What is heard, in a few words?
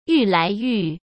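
"愈来愈", Mandarin Chinese; hsk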